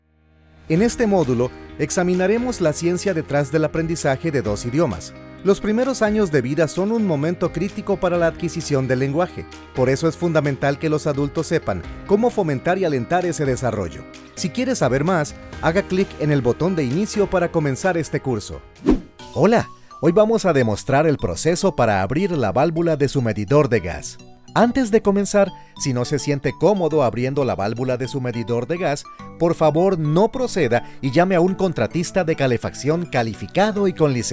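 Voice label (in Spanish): e-learning, Costa Rica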